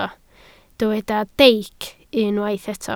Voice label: "deic", Welsh